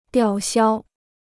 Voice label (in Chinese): "吊销", Chinese